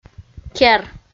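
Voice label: "кер", Komi, female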